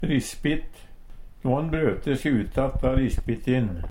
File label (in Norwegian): rispitt, Numedalsmål; No ha`n brøte se ut att da risbittin.